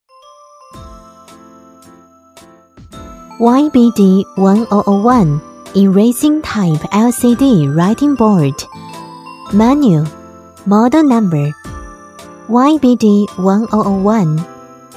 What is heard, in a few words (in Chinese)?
英文 优雅平稳 产品